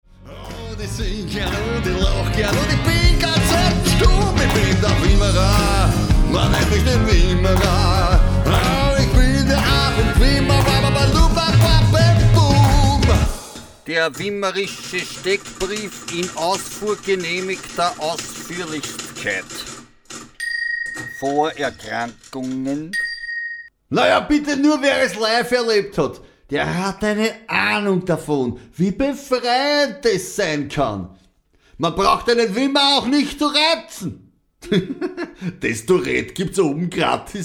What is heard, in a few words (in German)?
mit Schreibmaschine und Verhörstimme; STECKBRIEF; Punkt 14 VORERKRANKUNGEN; MUSIK